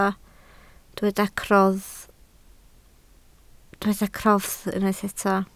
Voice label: "crofft", Welsh